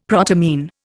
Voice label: "protamine", Indian; Dictionary

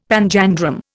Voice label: "panjandrum", Indian; Dictionary

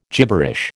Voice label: "gibberish", American

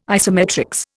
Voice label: "isometrics", Indian

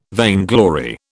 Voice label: "vainglory", British

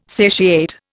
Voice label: "satiate", Indian

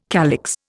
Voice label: "calyx", Indian

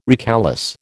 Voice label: "recalesce", American

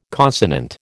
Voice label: "consonant", American

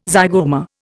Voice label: "zygoma", Indian

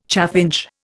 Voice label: "chaffinch", Indian

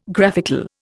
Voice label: "graphical", Indian

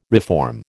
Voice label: "reform", American

